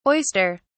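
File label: "oyster (s)", British English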